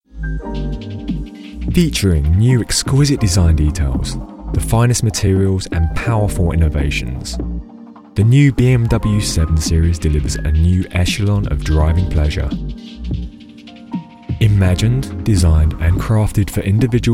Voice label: Soft Sell; BMW 7 Series